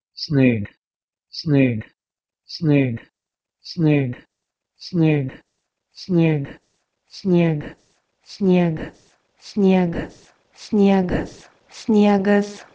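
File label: Proto-Indo-European sneigwh to Lithuanian sniegas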